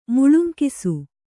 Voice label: "ಮುಳುಂಕಿಸು", kannada